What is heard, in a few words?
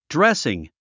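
"dressing", English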